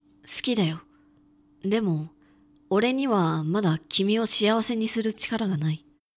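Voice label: male; クール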